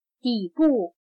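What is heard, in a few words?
"底部", Chinese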